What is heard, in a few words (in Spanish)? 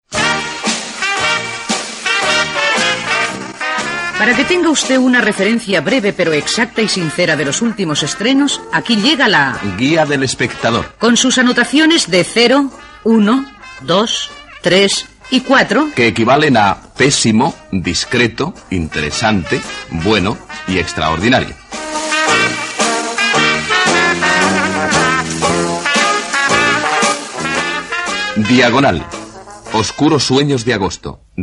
Cámara critica cine; Cámara 68; 1968